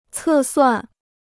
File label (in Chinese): "测算", Chinese